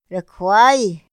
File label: "ra kjuay", Otomí; INPI